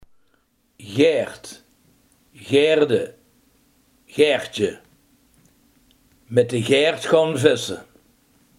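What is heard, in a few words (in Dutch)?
hengel, Limburgse gesproken woordenboeken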